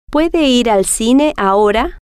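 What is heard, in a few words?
puede Ir Al Cine Ahora, Spanish, female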